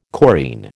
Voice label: "chorine", American